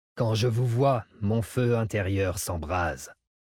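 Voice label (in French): French Male Flirt; FeuInterieur; Dracthyr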